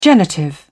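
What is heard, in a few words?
"genitive", British English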